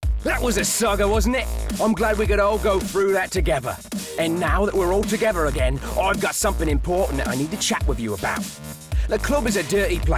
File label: Commentary; Dub Factory 92.2; Gangstar Vegas; Android